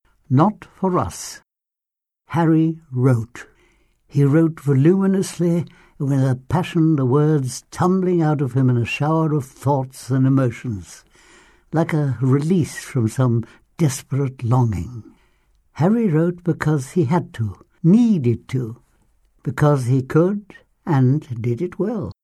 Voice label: american english male Erzählung

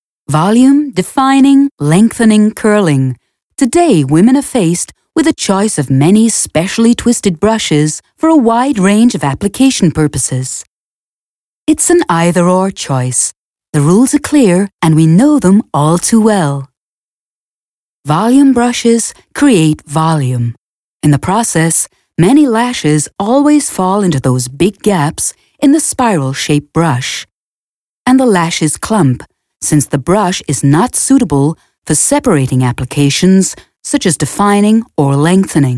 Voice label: american english female US Werbung

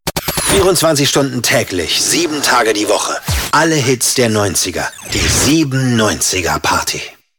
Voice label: Trailer, maenner; Radio7

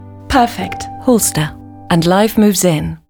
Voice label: british english female Spot; Huelsta

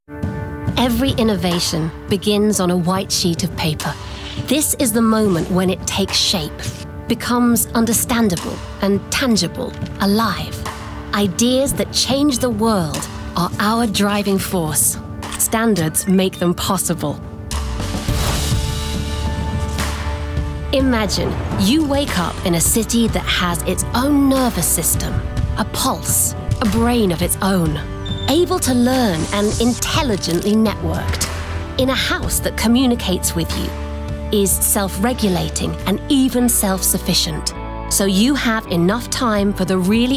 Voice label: british english female

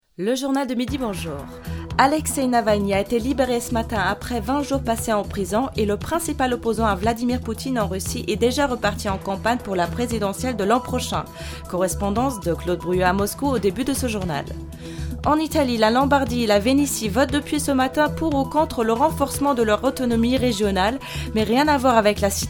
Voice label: femme Nachrichten

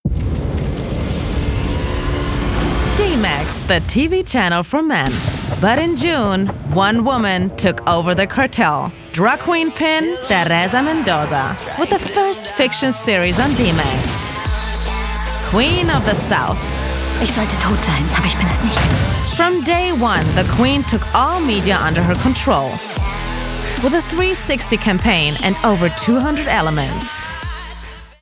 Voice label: american english female Showcase; DMAX

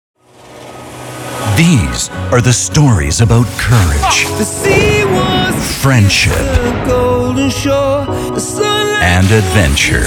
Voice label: american english male Trailer; Discover

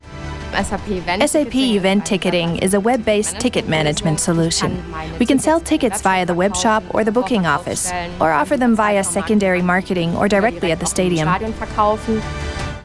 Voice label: american english female Kommentar; SAP

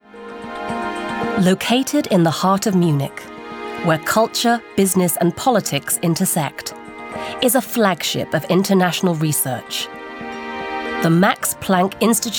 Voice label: british english female; Max Planck Institute

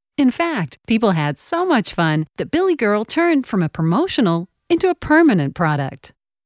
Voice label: american english female Promotion; Billy Girl

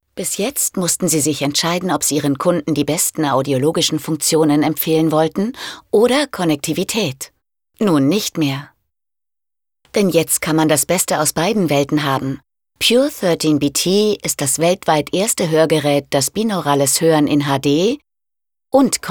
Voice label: eLeaning; sprecher; frauen; Kommentar; kurz; Pure13

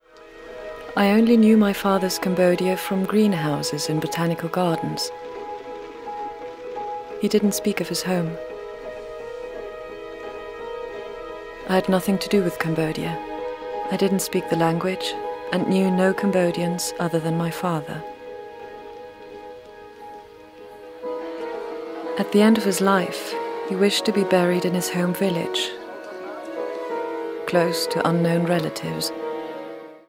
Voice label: british english female; Bonne Nuit Papa

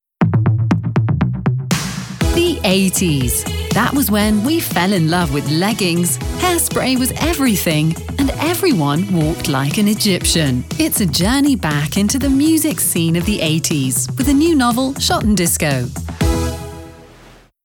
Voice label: american english female; Schottendisco Imagefilm

